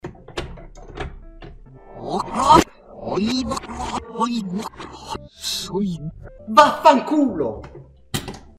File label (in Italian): bestemmie reverse